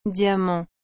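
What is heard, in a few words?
"diamant", French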